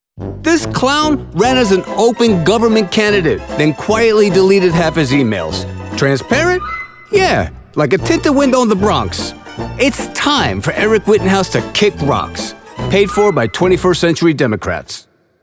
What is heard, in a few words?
Democratic Political, Democratic political voice over for campaigns, PACs, and issue advocacy; Whittenhouse the Clown